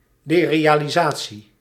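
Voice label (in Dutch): "derealisatie", Dutch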